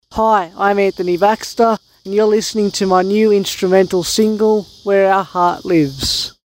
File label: radio intro no backing